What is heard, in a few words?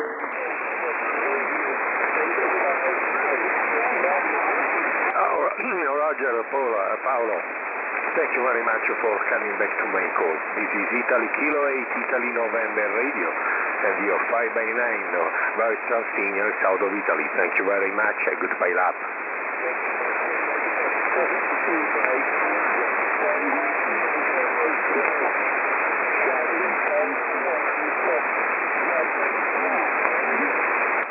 qso; 18150khz